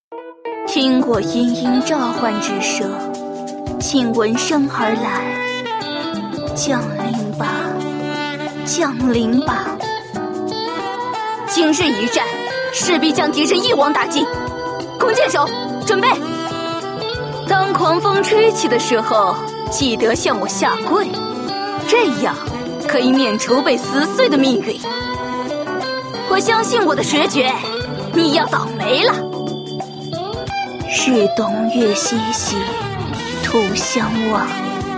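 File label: female young woman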